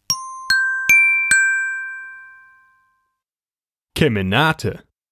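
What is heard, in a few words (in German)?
"Kemenate", German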